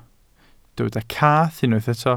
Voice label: "cath", Welsh